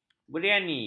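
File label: "beriani", Malay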